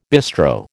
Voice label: "bistro", American

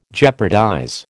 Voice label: "jeopardize", American

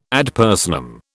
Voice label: "ad personam", British

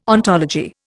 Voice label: "ontology", Indian

